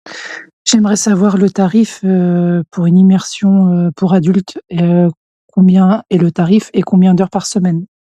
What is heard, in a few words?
voice message